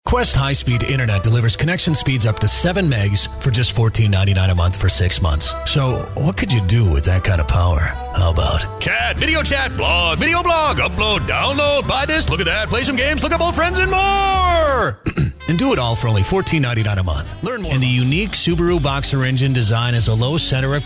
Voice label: commercial